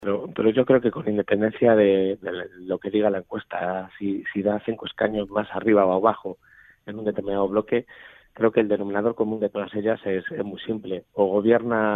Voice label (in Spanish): entrevista radio